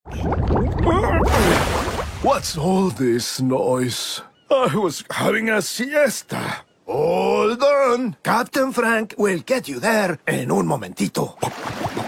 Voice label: Animation English

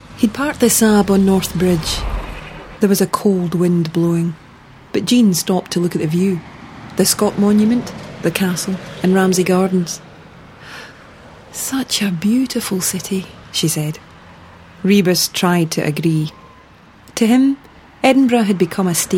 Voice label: Narration

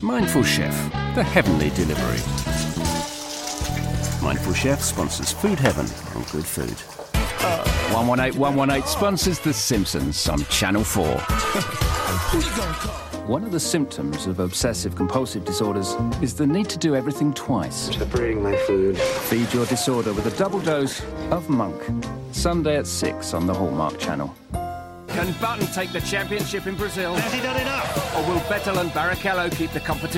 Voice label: Promos

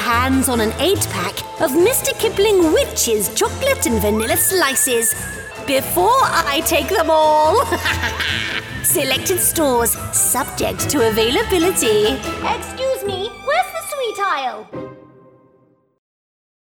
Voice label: Halloween Showreel

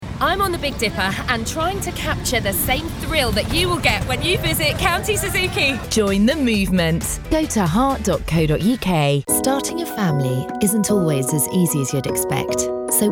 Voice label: Commercial

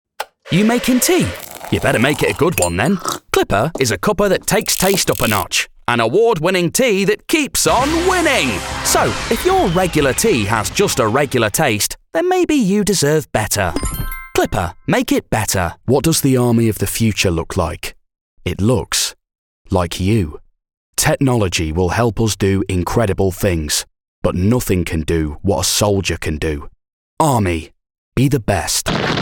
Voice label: Commercial

